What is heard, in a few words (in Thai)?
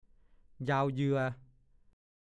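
"ยาวเยือ" ภาษาโคราช; ยาวติดต่อกัน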